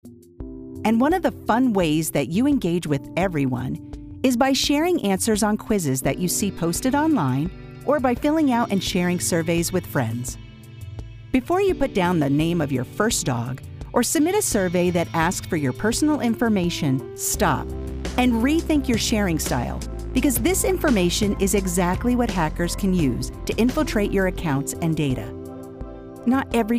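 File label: narration cyber training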